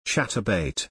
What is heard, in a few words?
pronunciation UK ENGLISH